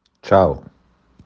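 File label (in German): "ciao", italienisch; Hallo tschuss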